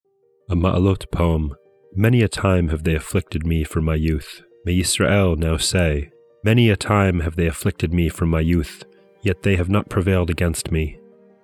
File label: poetry and prayer psalm english